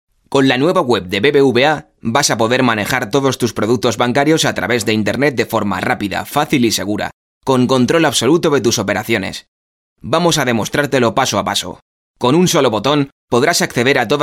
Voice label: spanish spain male e learning; Español – Castellano (España)